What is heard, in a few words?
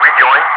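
"rejoin", English speech, Wingman; Messages; DCS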